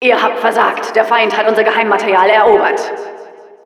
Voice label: intel enemy captured de; "Ihr habt versagt. Der Feind hat unser Geheimmaterial erobert."